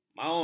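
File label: "maut", Malay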